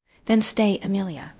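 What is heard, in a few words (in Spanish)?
Voice Acting, es, female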